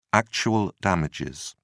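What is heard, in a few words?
"actual damages", English, British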